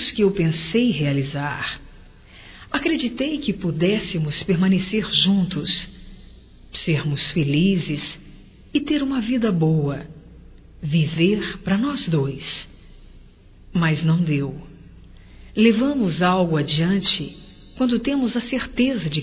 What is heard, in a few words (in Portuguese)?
VC FOI UM SONHO, Românticas fem